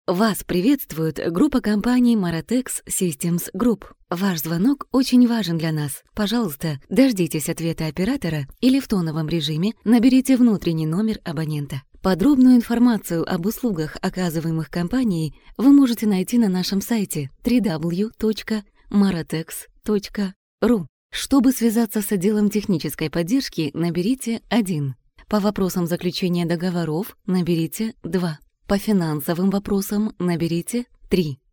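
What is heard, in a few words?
Ukrainian female voice over, narrative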